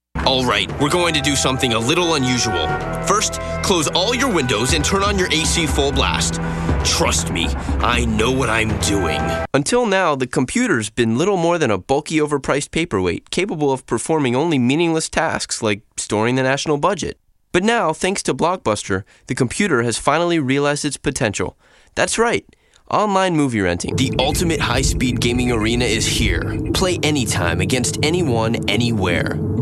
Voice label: Commercial